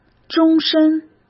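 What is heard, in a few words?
"终身", Mandarin Chinese; hsk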